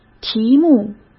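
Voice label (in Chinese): "题目", Mandarin Chinese; hsk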